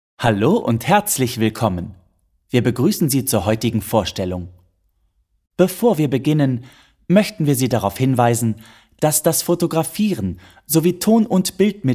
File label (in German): theater ansage